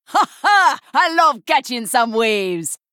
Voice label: Female Troll

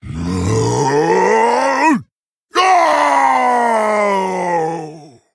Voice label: Male Tauren Death

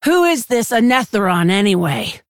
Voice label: Female Orc; Rokara

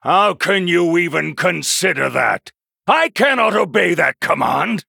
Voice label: Male Human Victory; Uther

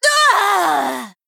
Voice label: Female Troll Death

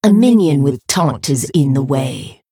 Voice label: Female Blood Elf ERROR TAUNT

